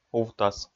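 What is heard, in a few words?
"увтас", Komi, male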